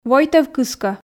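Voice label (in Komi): войтӧв кыскӧ, Komi, female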